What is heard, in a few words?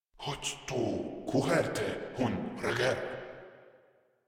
Patrol Grineer Male, Barks, Battle